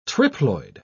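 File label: "triploid", British English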